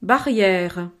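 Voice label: "barriere", French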